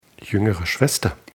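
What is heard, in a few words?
"jüngere schwester", German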